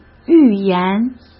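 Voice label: "预言", Mandarin Chinese; chinese flashcards; hsk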